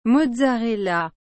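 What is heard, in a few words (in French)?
"mozzarella", French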